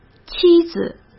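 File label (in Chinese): "妻子", Mandarin Chinese; hsk; chinese-flashcards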